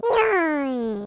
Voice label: voiceclip sad